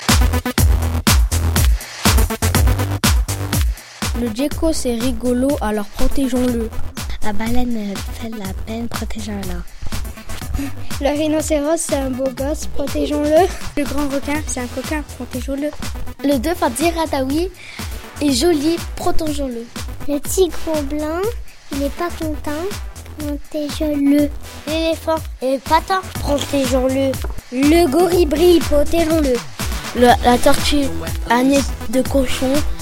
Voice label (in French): pub ecocitoyenne les animaux